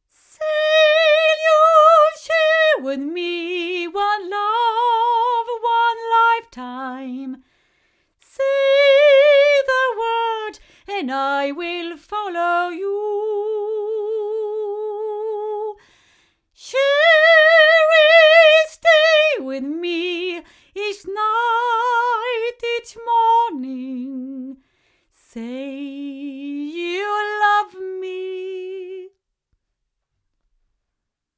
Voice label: female; microphones